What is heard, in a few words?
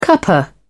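"cuppa", British English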